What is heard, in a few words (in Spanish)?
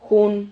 "jun", Silabas